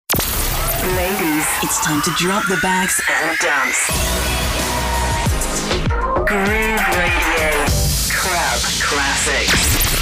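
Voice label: station imaging, Reassuring Kind English Female VoiceOver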